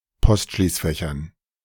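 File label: "Postschließfächern", German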